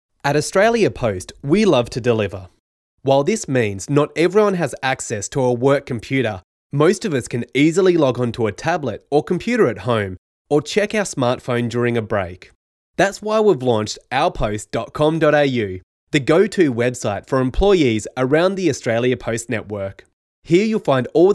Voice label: english australian narration